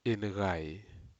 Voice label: "inucai", Aneityum; bushes